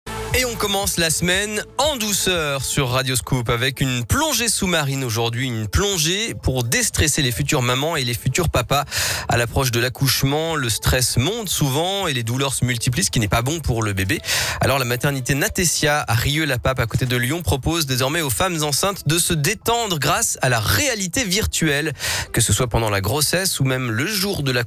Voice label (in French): Reportage casque de VR